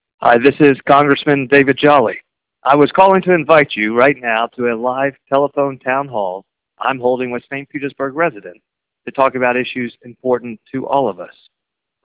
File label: robocall; Jolly